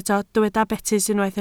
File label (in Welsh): "betys", Welsh